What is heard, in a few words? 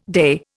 "day", Indian